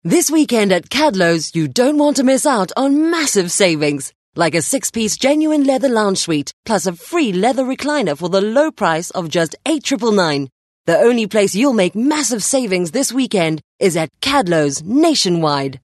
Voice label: English Hard Sell